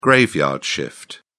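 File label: "graveyard shift", British English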